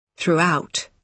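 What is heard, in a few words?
"throughout", British English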